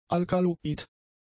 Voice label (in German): "alkaloid", German; adjectives